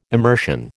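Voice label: "immersion", American English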